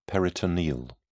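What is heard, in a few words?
"peritoneal", British English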